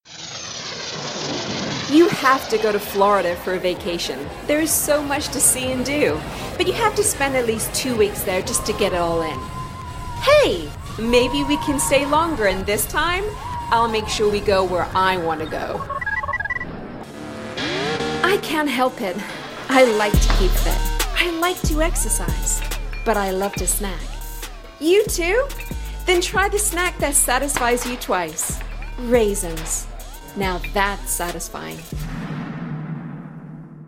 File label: VO American